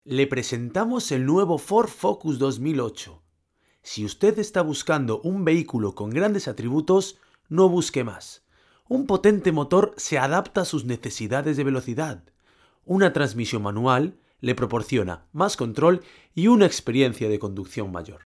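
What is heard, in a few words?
Spanish Spain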